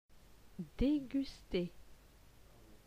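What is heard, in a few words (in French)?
"Deguster", French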